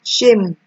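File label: "shame", Nigerian Pidgin, female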